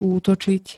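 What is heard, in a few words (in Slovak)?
"útočiť", Slovak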